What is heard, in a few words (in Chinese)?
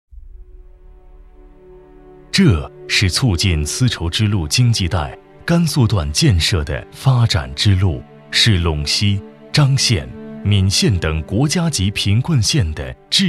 男 人物宣传